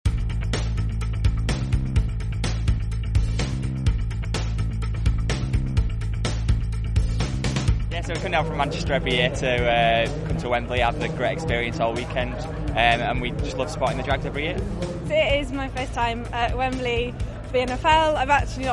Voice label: VOX POPS; NFL